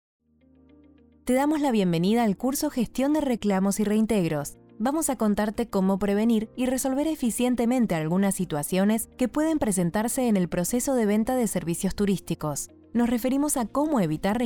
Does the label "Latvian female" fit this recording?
No